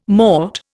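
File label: "mort", Indian English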